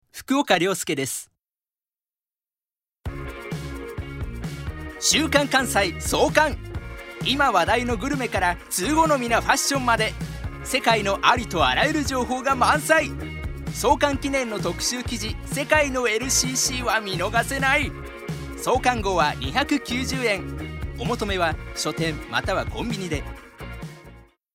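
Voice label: commercial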